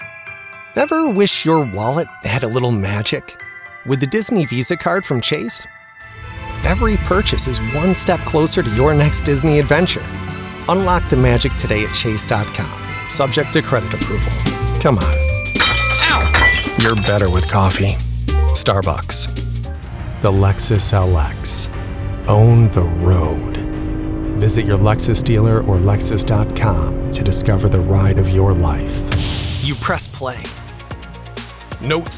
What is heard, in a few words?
Commericial